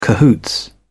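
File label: "cahoots", English, British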